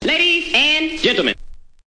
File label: "ladies and gentleman", male; VOCALS and SPOKEN WORD